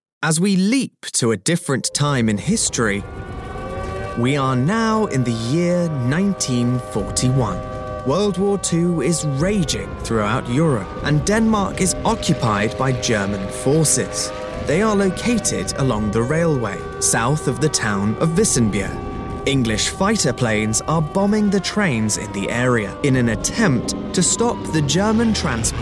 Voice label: audio tour guide